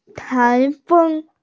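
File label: "tai2feng1", female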